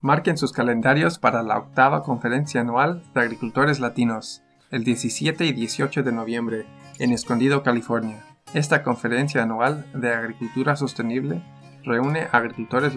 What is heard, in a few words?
Spanish